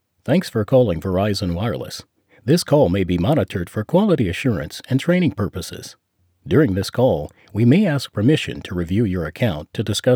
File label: Telephone IVR